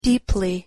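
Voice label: "deeply", English pronunciation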